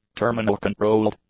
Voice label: "terminal-controlled", English; adjectives